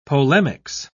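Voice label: "polemics", British English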